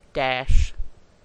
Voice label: corn, language class dictionary